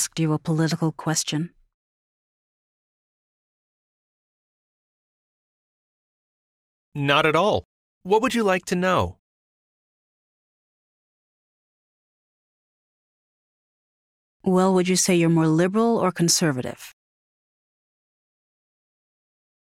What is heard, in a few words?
Pronunciation Practice; Connectivity 3